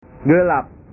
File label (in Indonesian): "gelap", bahasa